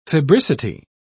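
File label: "febricity", British English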